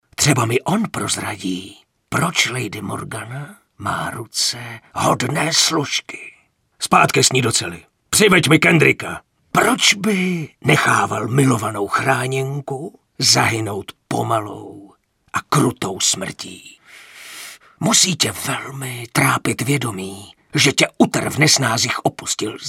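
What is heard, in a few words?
Male Czech drama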